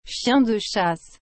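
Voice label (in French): "chien de chasse", French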